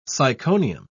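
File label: "syconium", British English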